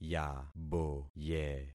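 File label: "yaboye", concatenated syllables; Affixing experiment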